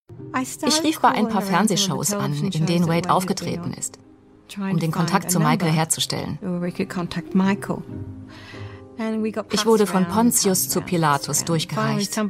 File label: voice over